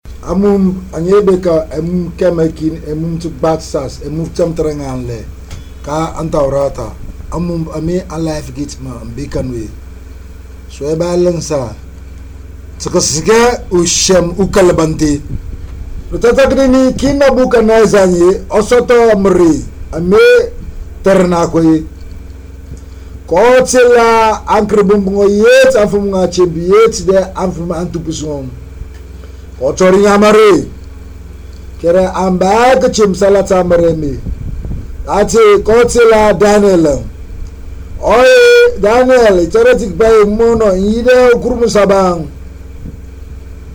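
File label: Themne Sierra Leone; Africa; Stories; BECOMING A WILD ANIMAL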